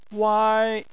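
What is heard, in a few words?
"wai", Chinese, first tone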